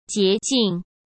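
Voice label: "洁净", Mandarin Chinese; chinese flashcards; hsk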